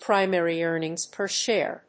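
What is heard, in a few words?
"primary earnings per share", English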